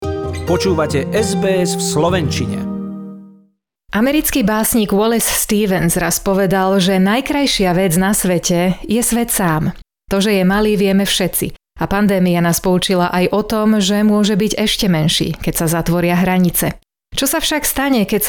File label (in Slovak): slovak; sbs